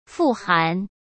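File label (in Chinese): "富含", Mandarin; chinese-flashcards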